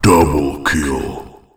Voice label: Double Kill, announcer; skullball